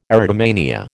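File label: "erotomania", American English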